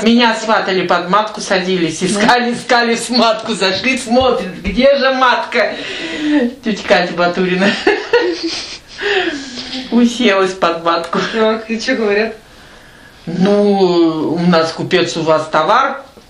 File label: middle ob dialect; матка